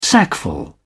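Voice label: "sackful", English, British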